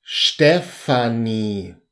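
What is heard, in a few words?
"stefanie", German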